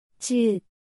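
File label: "ㅈ", Korean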